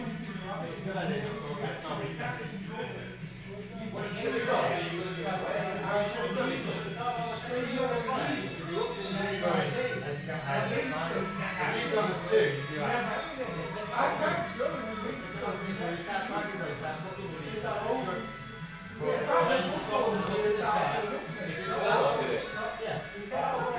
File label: distant chatter